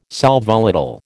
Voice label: "sal volatile", American